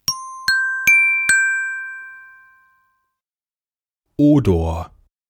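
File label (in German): "Odor", German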